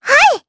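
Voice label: Vox, voices, Japanese; Lilia; kings raid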